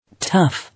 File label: "Tough", English